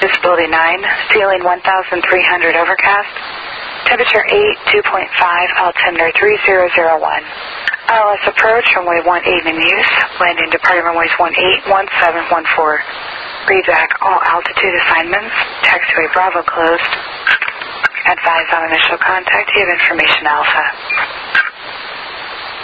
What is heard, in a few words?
ATIS Switches on the hour